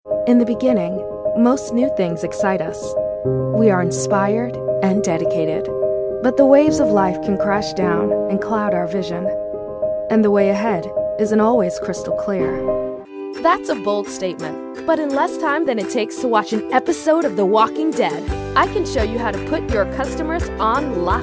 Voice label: Narration, Women